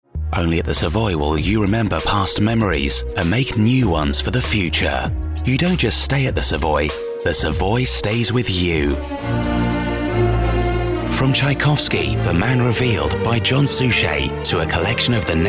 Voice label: Radio Soft Sell